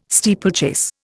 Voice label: "steeplechase", Indian

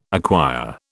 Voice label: "acquire", British

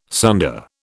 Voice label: "sunder", British

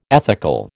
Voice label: "ethical", American

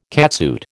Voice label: "catsuit", American

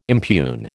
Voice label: "impugn", American

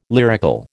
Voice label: "lyrical", American

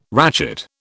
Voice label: "ratchet", British

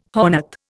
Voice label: "hornet", Indian

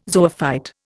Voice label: "zoophyte", Indian

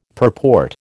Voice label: "purport", American

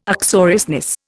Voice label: "uxoriousness", Indian

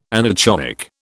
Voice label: "anechoic", British

